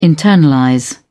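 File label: "internalize", British English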